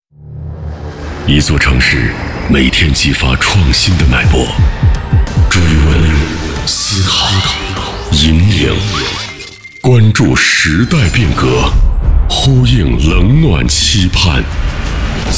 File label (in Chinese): 电台频宣 科技神秘; 广西新闻台